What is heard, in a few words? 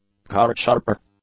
"cardsharper", English; nouns